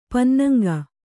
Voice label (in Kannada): "ಪನ್ನಂಗ", Kannada